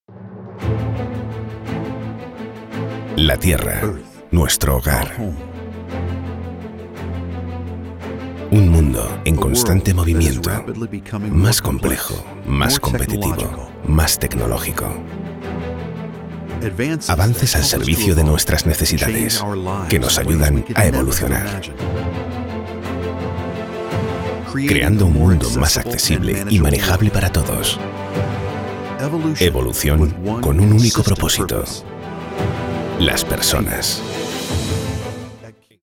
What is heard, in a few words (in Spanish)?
Docu Corporativo